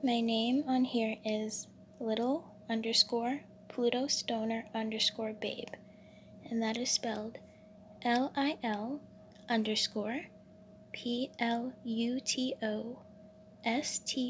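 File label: Female Verification Request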